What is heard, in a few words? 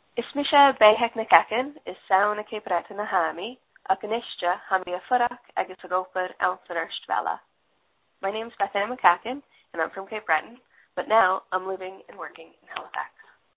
speaking Gaelic